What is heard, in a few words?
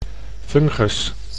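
"fungus", Dutch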